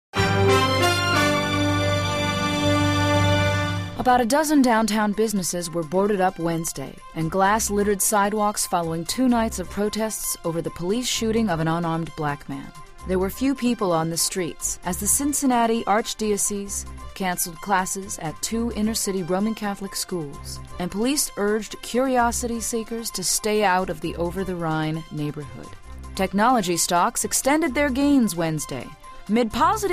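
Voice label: TV Female News Reporter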